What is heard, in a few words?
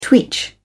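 "twitch", English, British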